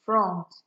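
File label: "front", Nigerian Pidgin, female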